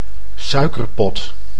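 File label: "suikerpot", Dutch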